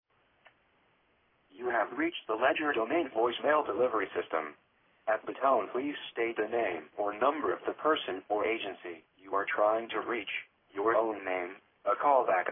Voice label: voicemail greeting